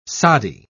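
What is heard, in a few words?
"soddy", British English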